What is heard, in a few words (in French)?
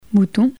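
"mouton" French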